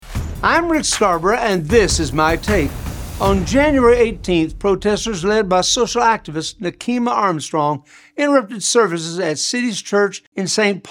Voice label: radio spot; Church in Minneapolis